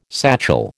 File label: "satchel", American